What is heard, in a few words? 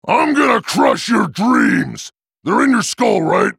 taunt, en US; Trundle